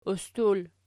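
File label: "ustul" nominative, Archi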